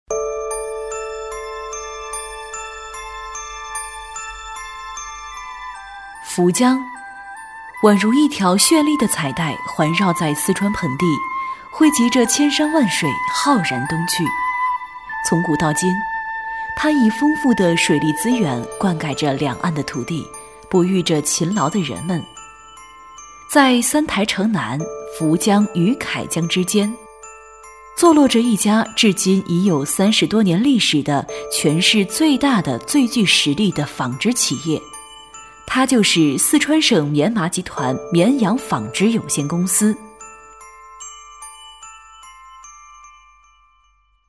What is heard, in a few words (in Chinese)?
女 宣传片 柔和解说; 端庄大气; 成熟知性; 涪江